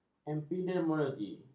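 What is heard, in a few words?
"epidemiologi", Malay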